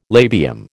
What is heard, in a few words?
"labium", American